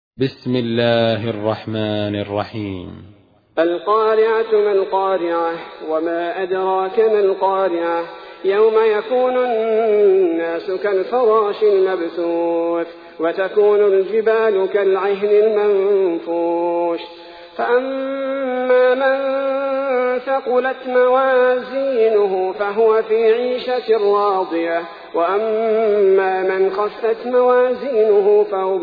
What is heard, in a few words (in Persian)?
ترتیل سوره قارعه; الثبیتی